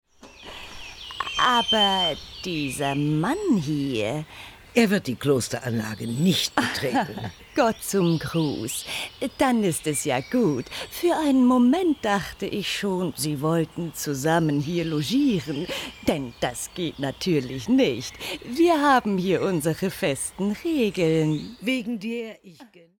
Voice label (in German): Hoerspiel; Margaret Rutherford; Schwester Maria Firmata